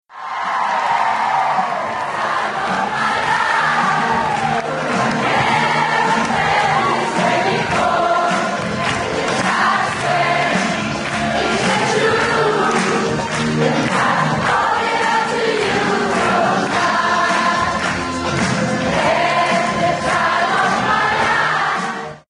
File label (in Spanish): radio; dirty dancing; sing along